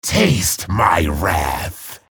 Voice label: chatter positive; deathknight; hellknight; frag